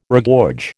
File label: "regorge", American